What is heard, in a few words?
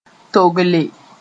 "togle", Mooré